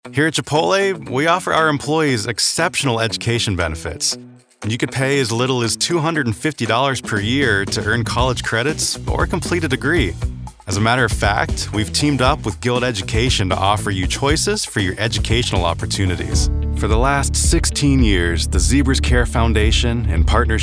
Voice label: Narration